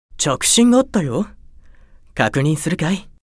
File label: Call Notification Voice; Simeon